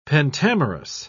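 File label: "pentamerous", English, British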